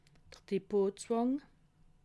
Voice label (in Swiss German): "depotzwang", alsace